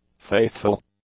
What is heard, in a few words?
"faithful", english; adjectives